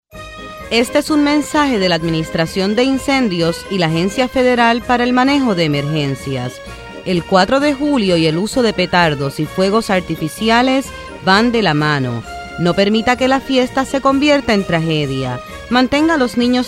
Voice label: PSA ESP Fireworks